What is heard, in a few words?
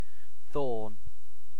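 "thorn", British; pronunciations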